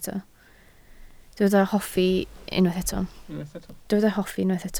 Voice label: "hoffi", Welsh

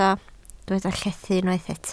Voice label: "llethu", Welsh

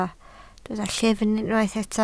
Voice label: "llyfn", Welsh

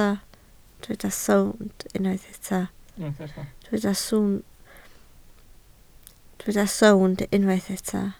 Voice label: "sownd", Welsh

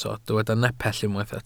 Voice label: "nepell", welsh

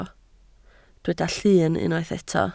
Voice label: "llun", Welsh

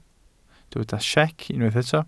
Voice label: "siec", Welsh

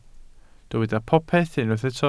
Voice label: "popeth", Welsh

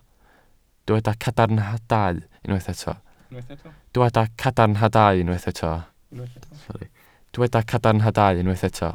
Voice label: "cadarnhadau", Welsh

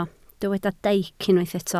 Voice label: "deic", Welsh

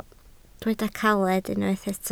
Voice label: "caled", Welsh

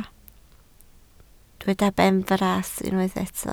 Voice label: "benfras", Welsh

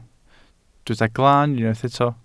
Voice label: "glaan", welsh

